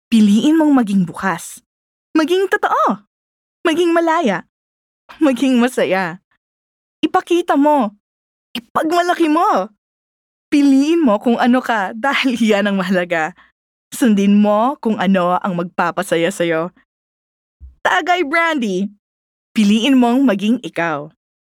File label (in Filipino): Taglalog (Conversational, Inspiring)